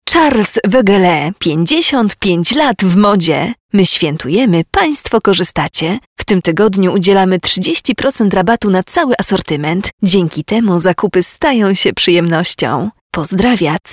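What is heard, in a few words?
Polish, female, commercial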